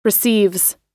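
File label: "receives", American English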